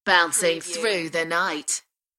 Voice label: UK female Bouncing through the night; beanstalk audio